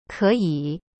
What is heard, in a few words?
"可以", Chinese; keyi